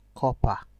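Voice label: "corper", Nigerian Pidgin, male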